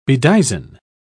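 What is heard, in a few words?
"bedizen", English, British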